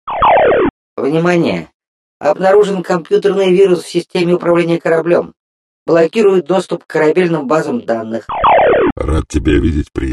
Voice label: russian male

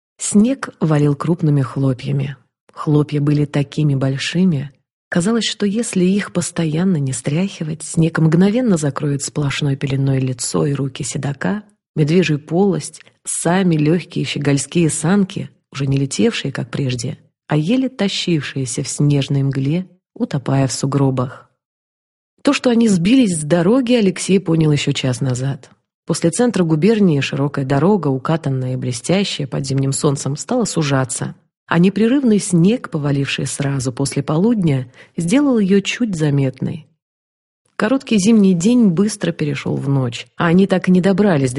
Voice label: rus

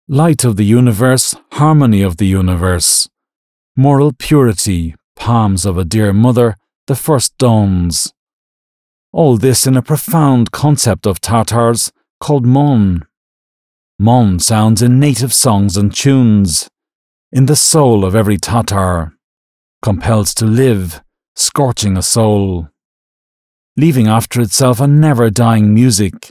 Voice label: british english male

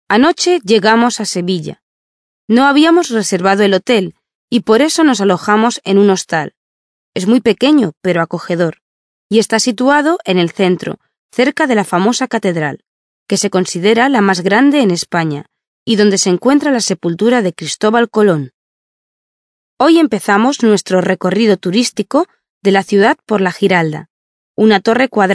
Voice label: spanish female

